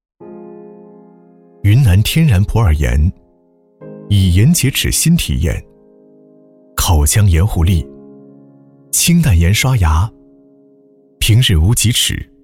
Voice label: 男,温暖自然,牙膏; 云盐牙膏

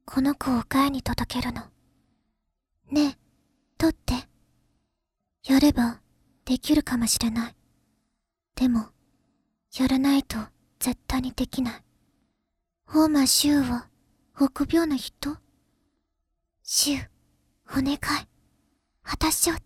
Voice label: 女, 日语; 罪恶王冠; 楪祈

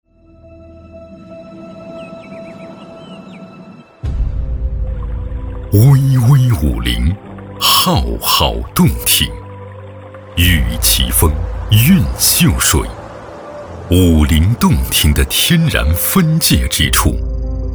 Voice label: 男 宣传 景区 片 大气高端; 太浮山景区

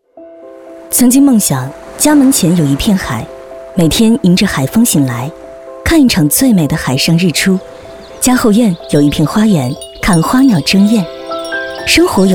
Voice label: 女 广告 磁性温暖 房产

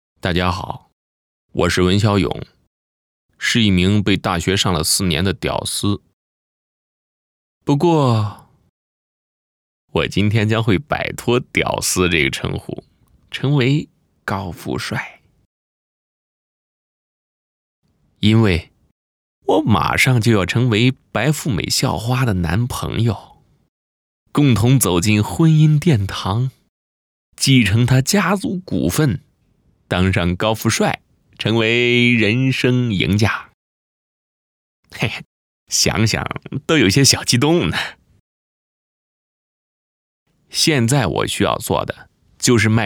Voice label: 男-微解说-自述; 屌丝自述

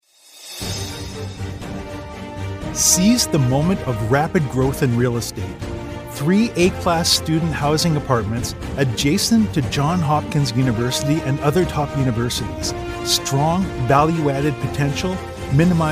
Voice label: 宏伟大气

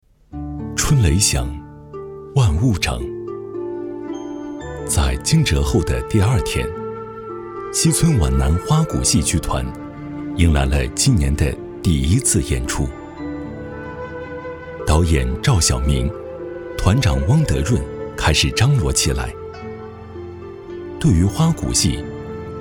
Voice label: 男 纪录片 非遗

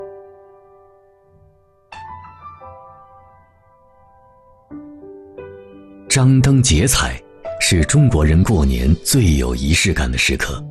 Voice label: 男 纪录片; CCTV-10纪录片; 我们的节日; 2020中国记忆

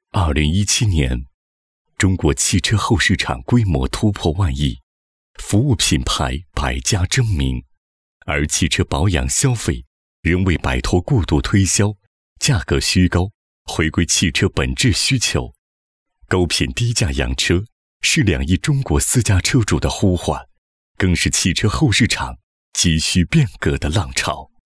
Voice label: 男 企业专题 科技感

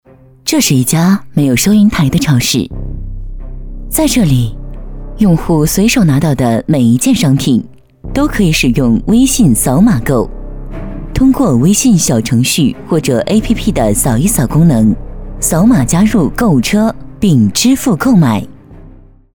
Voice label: 女, 广告; 这是一家没哟普收银台的超市

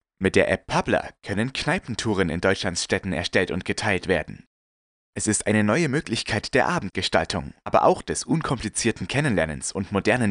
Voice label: 德语男 正常叙述

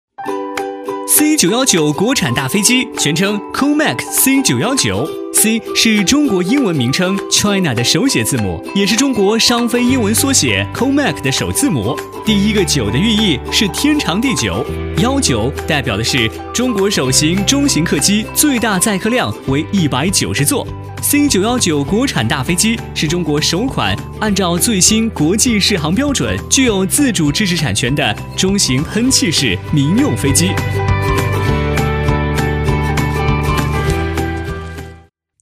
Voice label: 男 飞碟说 C919国产大飞机

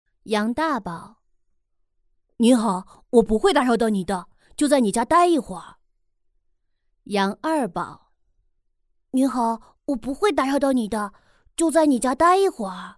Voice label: 男童 女童 女声多角色

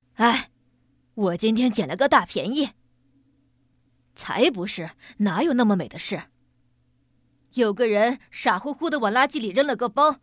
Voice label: 女, 模仿 大妈

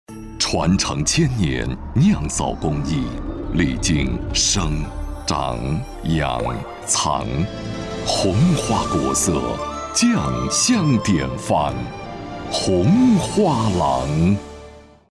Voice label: 男 品牌; 红花郎

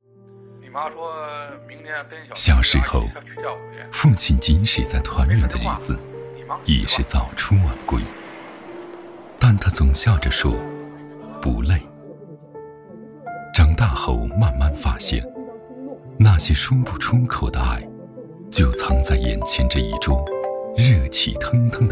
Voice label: 男 广告 独白 片 走心温暖 中秋团圆

